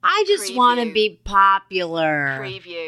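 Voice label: Female I Wanna Be Popular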